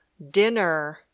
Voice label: "dinner", English

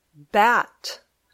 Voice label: "bat", English

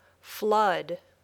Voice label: "flood", English

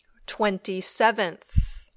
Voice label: "twenty seventh", English

